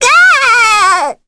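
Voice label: Vox Dead, Korean; Rodina; kingsraid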